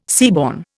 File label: "seaborne", Indian